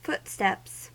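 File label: "footsteps" US English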